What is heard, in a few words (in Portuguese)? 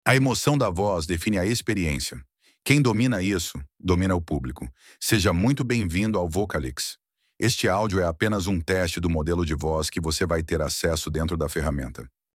robo; Esse é o resultado comum das IAs que todo mundo usa.